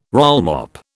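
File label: "rollmop", British English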